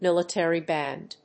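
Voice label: "military band", English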